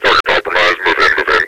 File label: target compromised move in, combine soldier; svencoop; hl2 npc